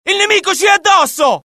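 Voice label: alert, Italian; mohaa; dialogue; sighted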